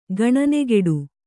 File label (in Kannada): "ಗಣನೆಗೆಡು", Kannada